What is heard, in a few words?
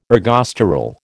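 "ergosterol", American English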